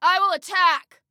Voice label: Self Attack Attack, Female

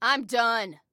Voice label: Command Completed, Female

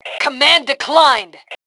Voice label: Command Declined, Female

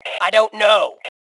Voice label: Respond Dont Know, Female; T2 Female 04

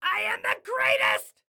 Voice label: Taunt Brag, Excited Guy

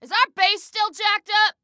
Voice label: Team Base Secure, Female

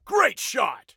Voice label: Compliment Great Shot, Soldier Male

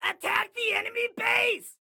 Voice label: Attack Base, Excited Guy

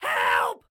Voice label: team help, excited guy

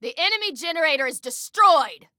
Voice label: Enemy Generator, Female

